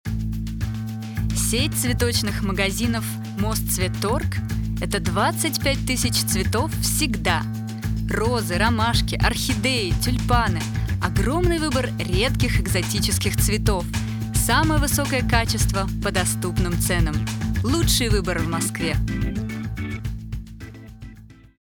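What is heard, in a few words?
promotion russian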